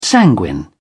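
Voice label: "sanguine", British English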